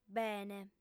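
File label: "bene", female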